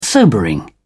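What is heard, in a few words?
"sobering", British English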